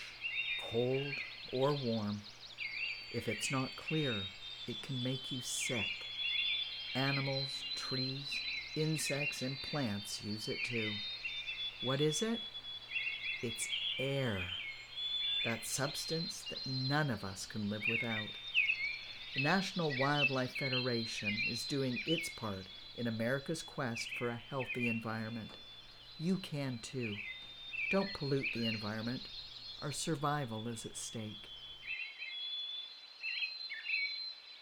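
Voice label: voice sample commercial, female voice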